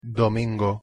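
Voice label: "domingo", Spanish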